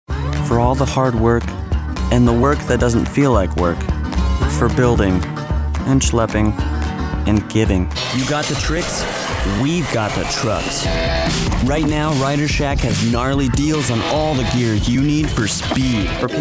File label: Commercial